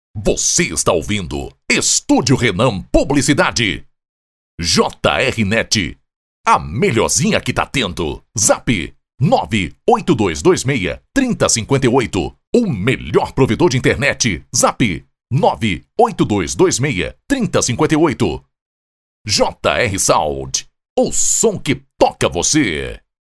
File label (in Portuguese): LOCUTOR; Demo Locutores